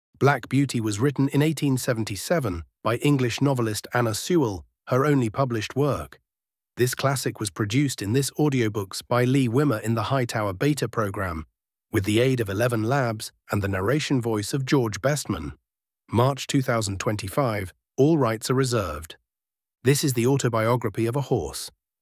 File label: Black Beauty voice trailer